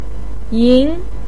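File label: "yin2" Chinese syllable, pinyin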